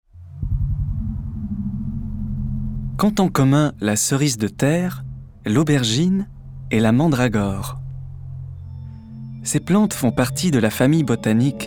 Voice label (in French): Narration